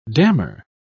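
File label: "damar", British English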